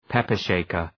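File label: "pepper shaker", English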